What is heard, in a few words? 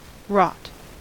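"wrought", US English; pronunciations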